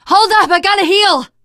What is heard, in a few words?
cover me, teengirl; survivor voice